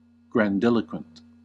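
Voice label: "grandiloquent", US English; pronunciations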